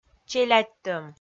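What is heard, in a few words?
"челядьтӧм", Komi, female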